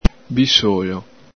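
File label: "bisolo", Veneto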